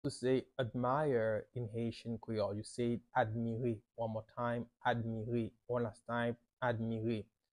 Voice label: "Admire" Haitian Creole pronunciation by a native Haitian Creole tutor; How to say Admire in Haitian Creole